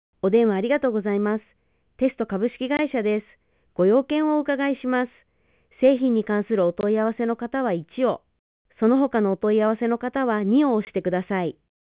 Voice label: ivr